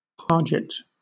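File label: "parget", British English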